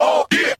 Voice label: "ah yeah", Voice